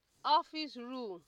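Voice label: "office rule", Nigerian Pidgin, female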